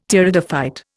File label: "Pteridophyte", Indian; Dictionary